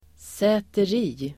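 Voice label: "säteri", Swedish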